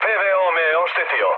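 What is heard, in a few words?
Serbian damaged aa mood med, speech; War Thunder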